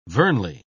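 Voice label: "vernally", British English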